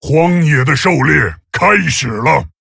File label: Male Tauren Start; zh